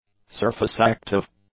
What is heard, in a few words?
"surface-active", English; adjectives